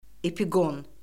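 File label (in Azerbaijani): "epiqon", Azerbaijani; Orfoqrafiya Lüğəti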